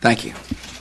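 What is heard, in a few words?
"thank you", male voice; dubya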